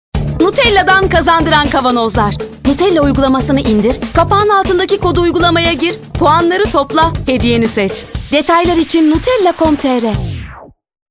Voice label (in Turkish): reklam; nutella